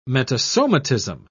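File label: "metasomatism", English, British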